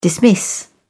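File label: "dismiss", English, British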